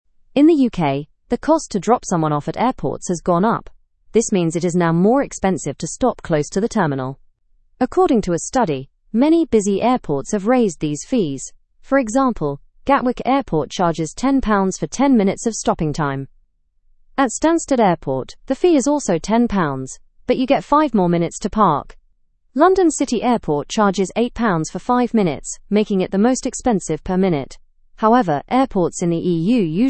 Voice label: learn english through news lower intermediate fast; uk airports raise drop off fees